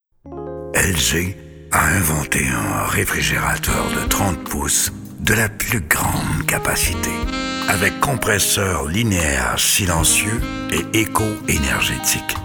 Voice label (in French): pub frigo; lg frigo